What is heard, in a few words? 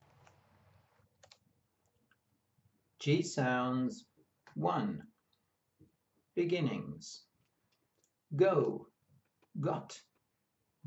G sounds, pronunciation of australian english